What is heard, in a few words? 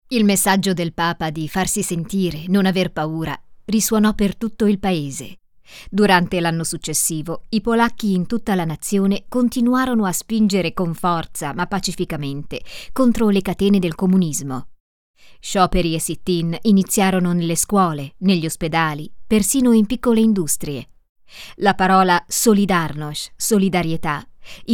italian documentaries